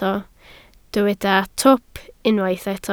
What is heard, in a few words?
"twp", Welsh